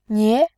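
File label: "nie", Mandarin, tone 2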